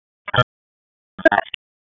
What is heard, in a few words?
Raleigh Police Northeast District Tac, p25